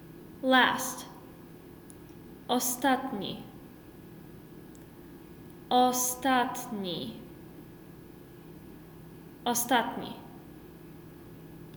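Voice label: Last, Polish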